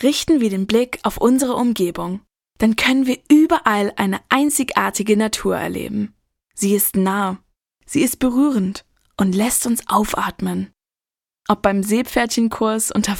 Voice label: Bacillol DEUTSCH; LOFT VOICES

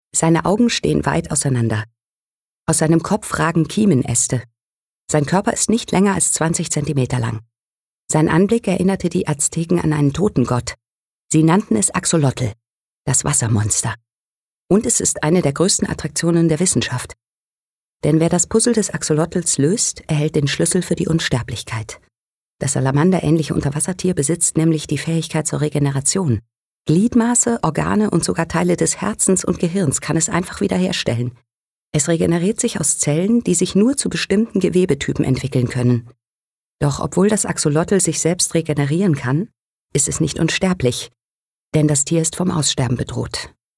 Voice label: Dokumentarischer Text

